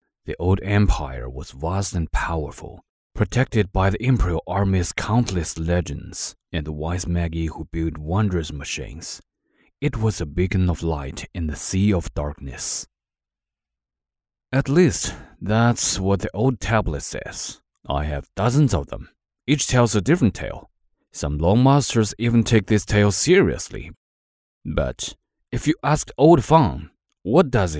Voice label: English game

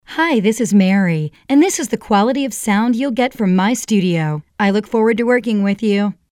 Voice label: studio sample

